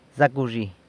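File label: "Zac wzhi" Good afternoon!, San Lucas Quiaviní Zapotec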